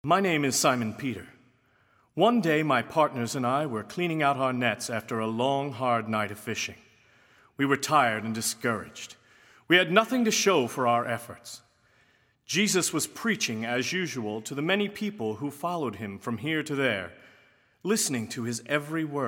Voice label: Monologue; Simon Peter; living last supper